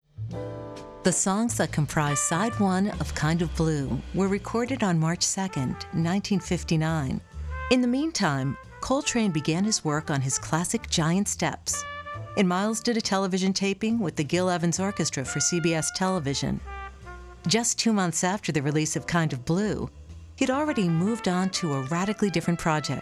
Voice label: documentary, narration